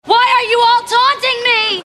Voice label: taunting; cstrike; forlix